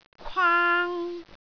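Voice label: "kwaang1", Cantonese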